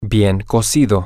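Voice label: well Done, spanish, male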